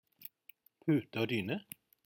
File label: "putedyne", norwegian